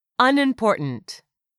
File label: "UNIMPORTANT", English; 3000 words